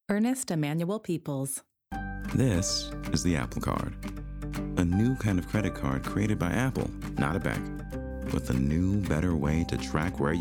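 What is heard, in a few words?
commercial VO; Voice Over Reel; 2025 mfa acting showcase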